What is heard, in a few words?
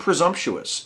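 "presumptuous", US English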